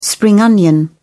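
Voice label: "spring onion", English, British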